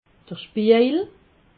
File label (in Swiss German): "spiejel", alsace